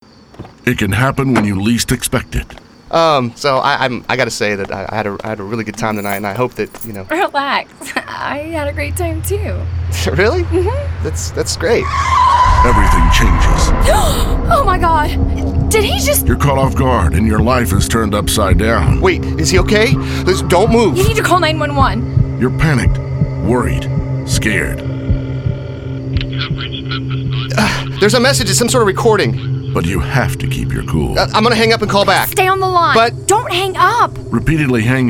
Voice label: Radio Car Crash